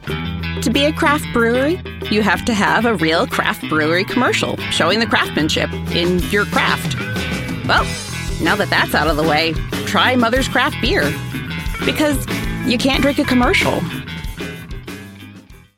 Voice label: Spot; Craft Brewery